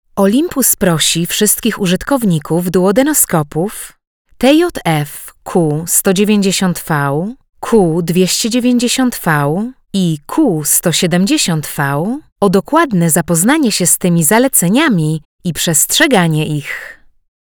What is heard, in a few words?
Female Polish Voice Instructional; Olympus